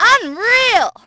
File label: unreal, Brit; Splashdown; XBOX